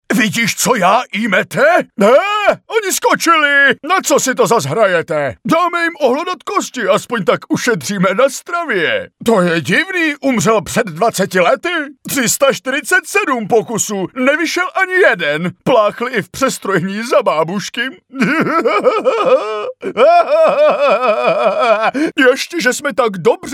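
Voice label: Male Czech drama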